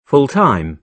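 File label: "full-time", British English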